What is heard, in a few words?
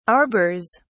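"arbors", British English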